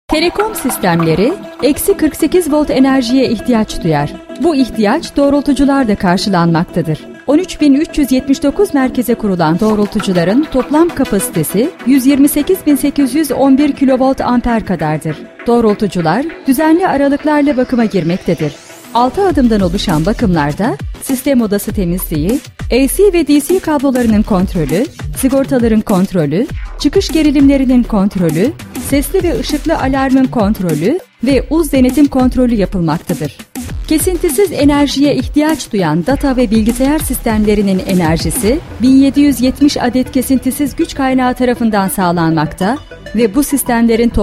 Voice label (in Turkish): tanitim